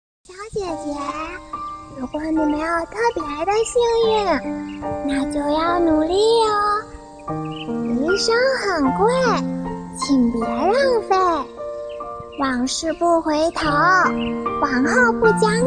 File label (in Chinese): 治愈娃娃萝莉音